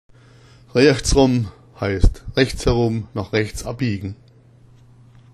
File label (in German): "reachts rom", schwaebisch